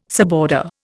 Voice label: "suborder", Indian